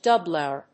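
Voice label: "doublure", English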